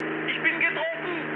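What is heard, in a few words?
German aircraft damaged mood high, speech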